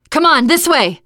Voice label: follow me, teengirl, voice; player; survivor

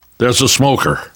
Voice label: heard smoker, survivor voice; namvet; Left 4 Dead 2; left4dead2 dlc2

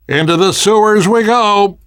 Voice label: world hospital, namvet, survivor voice

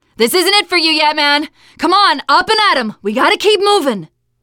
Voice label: revive friend, teen girl

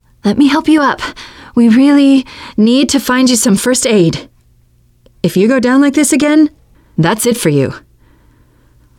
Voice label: revive critical friend, teengirl; player; survivor; voice

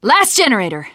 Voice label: teengirl, voice; Left 4 Dead 2; left4dead2 dlc2; survivor

